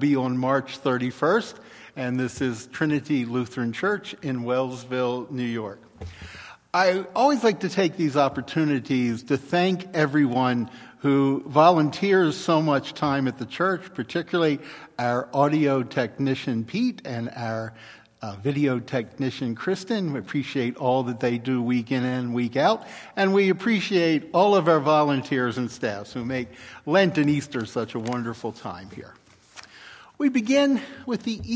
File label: Welcome and Announcements; Easter Sunday